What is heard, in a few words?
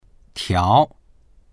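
"tiao2", Mandarin Chinese; 条; （細長く、曲がることのある物を数える）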